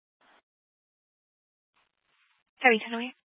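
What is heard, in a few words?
Incident Tactical 3, Scanner audio recording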